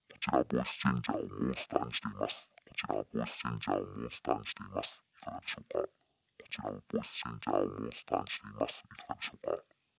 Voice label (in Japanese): ボイスチャンジャー モンスター